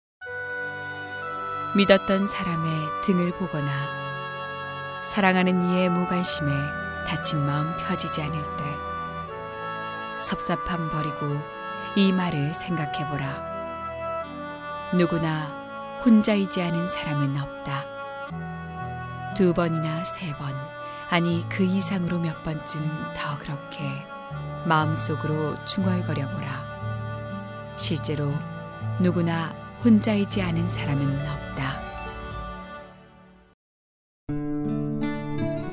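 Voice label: Korean, female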